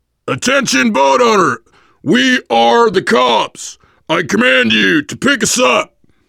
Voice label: world small town npc boatman, voice, biker; Left 4 Dead 2; survivor